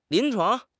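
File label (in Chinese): "临床", Chinese; HSK; HSK6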